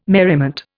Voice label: "merriment", Indian